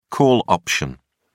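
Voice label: "call option", British English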